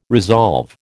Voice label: "resolve", American